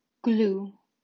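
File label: "Glue", English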